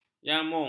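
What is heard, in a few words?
"jamung", Malay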